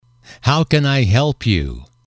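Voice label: how can i help you, English, male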